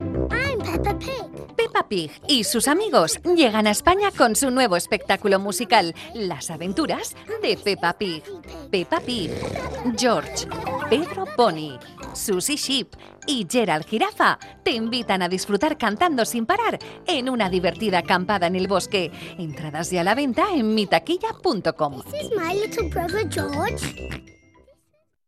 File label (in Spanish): PROMO; PEPA